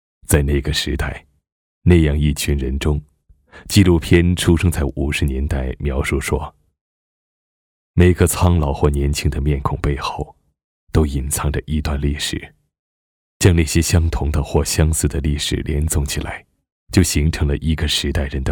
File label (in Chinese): 男 纪录片 预告片花, 国语男声配音; 大气专题